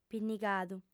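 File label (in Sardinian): "pinnigadu", female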